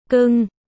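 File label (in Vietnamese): "Cưng", Vietnamese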